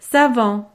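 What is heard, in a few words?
"savant", French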